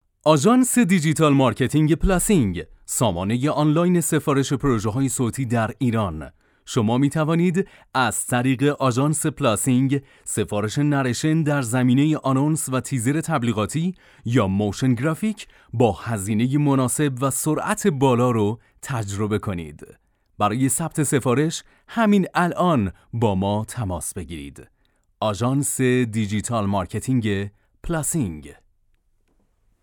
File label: narrator